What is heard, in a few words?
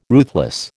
"ruthless", American